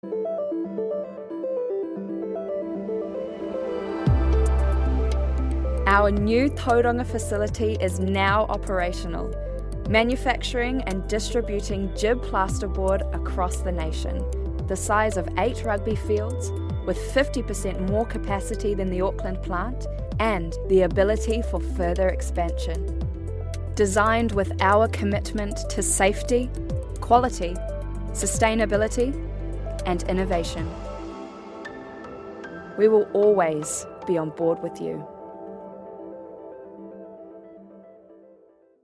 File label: Narration, en-US; BigMouth